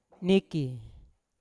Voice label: "niki", to sleep, Yawa